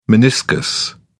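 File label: "meniscus", British English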